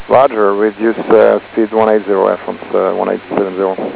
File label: ATC Chatter UK; fgfs